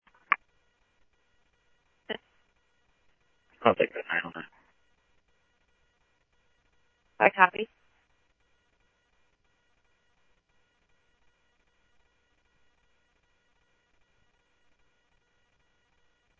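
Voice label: Simulcast police department, scanner audio trunk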